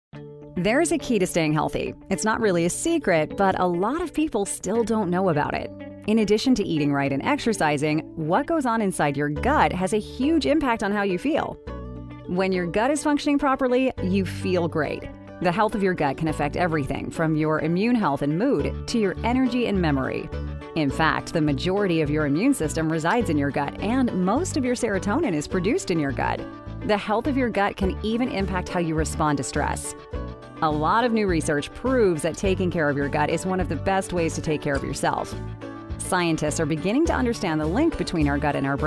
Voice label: Explainer Sample